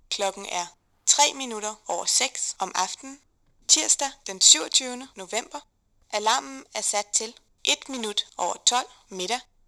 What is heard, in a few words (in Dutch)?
vrouw